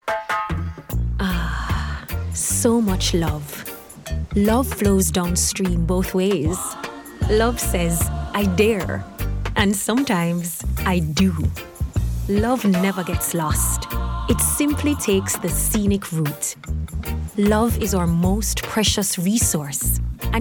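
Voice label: English Caribbean, female, commercial; accent of the caribbean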